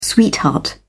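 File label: "sweetheart", British English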